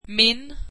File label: swedish my